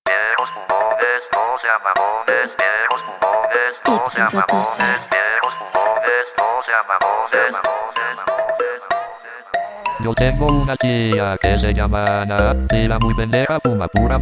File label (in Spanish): eloquence canción de reggaeton; O jaws também canta!; Español